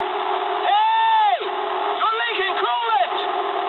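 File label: damaged water mood high, speech English; War Thunder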